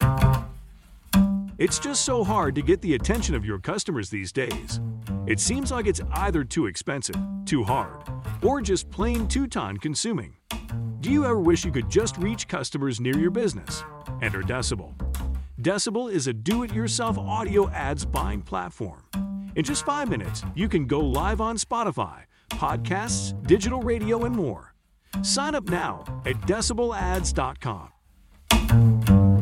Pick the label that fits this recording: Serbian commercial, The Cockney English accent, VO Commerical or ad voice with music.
ad voice with music